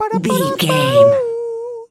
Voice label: voice fun character cute cartoon